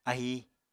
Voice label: "ahi", Apatani

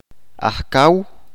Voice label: "jefe", Chalchiteko

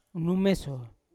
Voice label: "kunumeso" today, Yawa

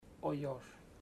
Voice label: we say ancient, chamacoco; Bahia Negra

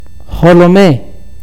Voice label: "head", akateko

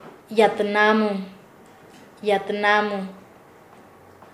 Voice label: Nasa Yuwe; dueno de la casa; paez

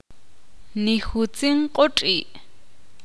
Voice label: ixil; los perros corren estan corriendo

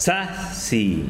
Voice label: "sal", Tsesungun

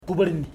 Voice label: "chest", Ipili

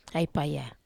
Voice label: "ai paya", Wamesa

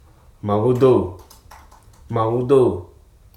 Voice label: "harm", Hruso

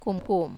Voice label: "kum-kum", Wamesa

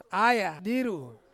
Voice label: "aya diru", bat, Ansus

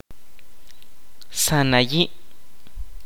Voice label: "sand", kaqchikel

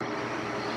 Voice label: "family", Mokilese

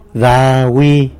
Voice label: "ra wi", guavas, tlacolula

